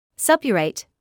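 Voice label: "suppurate", British English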